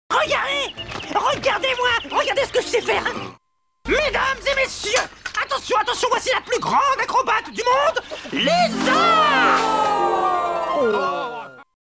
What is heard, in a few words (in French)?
DESSIN ANIMÉ